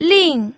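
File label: "ling", fourth tone, pinyin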